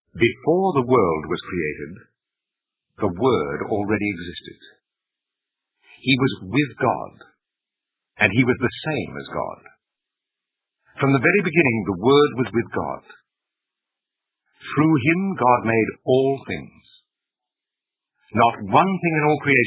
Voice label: new testament english good news, english anglicized; eng english anglicized accent audio bible